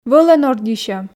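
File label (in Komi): "вӧлӧн ордйысьӧм", Komi, female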